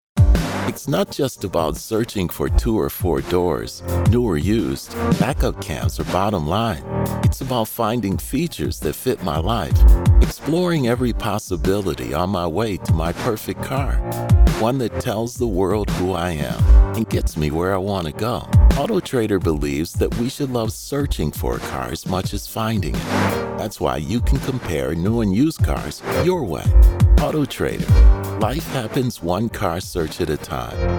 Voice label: Urban; AutoTraders